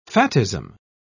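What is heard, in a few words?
"fattism", British English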